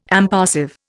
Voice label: "impassive", Indian